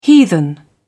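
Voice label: "heathen", British English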